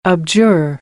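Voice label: "abjure", American English; AmericanBritish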